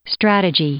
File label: "strategy", English; noun